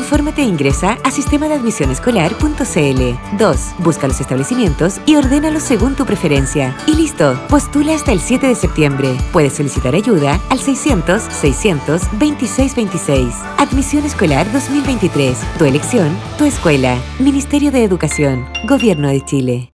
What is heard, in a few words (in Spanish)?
Mensaje de radio para postular; colegio